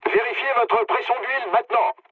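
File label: damaged oil, mood low, French; War Thunder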